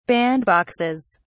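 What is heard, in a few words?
"bandboxes", British English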